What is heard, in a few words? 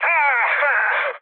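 Italian damaged pilot mood low, speech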